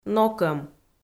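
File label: "нокӧм", Komi, female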